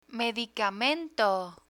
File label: "medicamento", Spanish